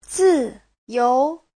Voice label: "zi4you2", Chinese; 自由; tự do

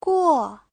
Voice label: "guo4", chinese

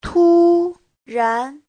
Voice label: "tu1ran2", Chinese; 突然; Giáo trình chuẩn HSK3

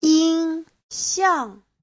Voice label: "yin1xiang4", Chinese

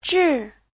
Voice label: "zhi4", chinese; 治; điều trị, chữa trị

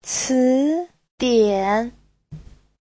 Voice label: "ci2 dian3", chinese; 词典; (danh từ) từ điển; Giáo trình chuẩn HSK3

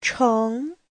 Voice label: "cheng2", Chinese; 成; thành, trở thành

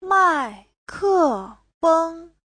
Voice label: "mai4ke4feng1", chinese; Giáo trình Hán ngữ

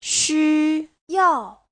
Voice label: "xu1yao4", Chinese; 需要; nhu cầu, yêu cầu

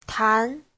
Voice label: "tan2", Chinese

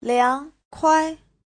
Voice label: "liang2kuai5", chinese; Giáo trình Hán ngữ

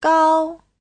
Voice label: "gao1", chinese; Giáo trình Hán ngữ

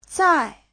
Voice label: "zai4", Chinese

